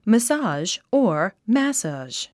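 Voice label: "massage", English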